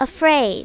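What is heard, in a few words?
"afraid", English; My Talking Dictionary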